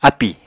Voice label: "ápì", Apatani